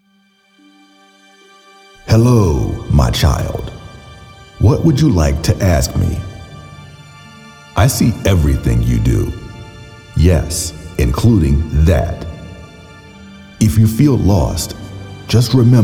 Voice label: Voice-of-God